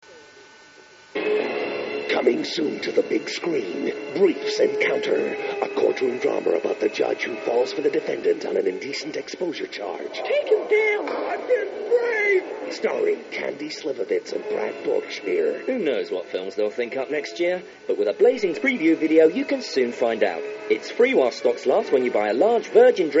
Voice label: cinemas radio ad